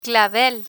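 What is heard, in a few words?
"clavel", Spanish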